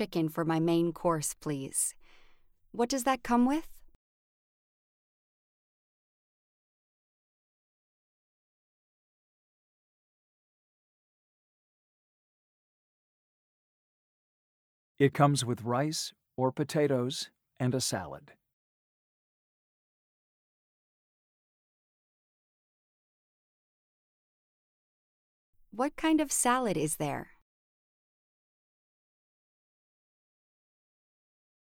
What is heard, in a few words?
Pronunciation Practice